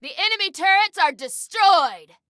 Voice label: Enemy Turrets, Female